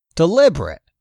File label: "deliberate", English; 3000 words